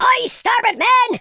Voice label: watch this, speech, Pirate